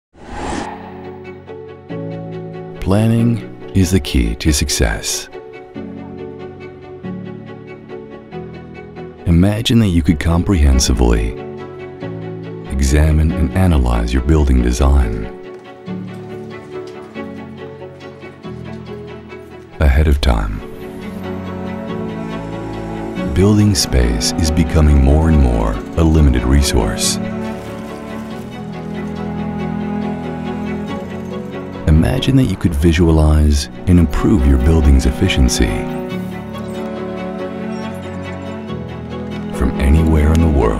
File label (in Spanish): Hombre 35-45 años Grave; ENUSH; LOCUTORES EXTRANJEROS